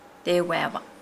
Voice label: Nukuoro; dock

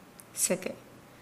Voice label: Nukuoro; slip

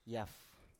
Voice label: "yaf", Biak

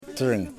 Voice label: "Tusk Tooth", Yimas

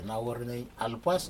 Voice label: thank you very much, Aneityum

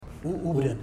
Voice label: "hello", Ipili

